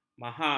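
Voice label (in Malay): "maha", Malay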